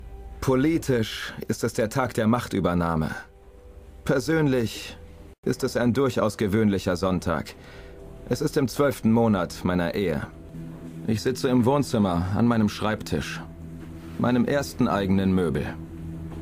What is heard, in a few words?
Doku Voice Over; ARD Doku Voice Over; German; LOFT VOICES